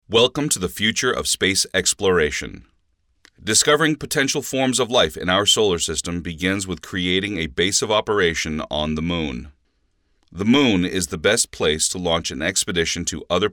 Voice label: Informative